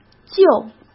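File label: "就", Mandarin Chinese; hsk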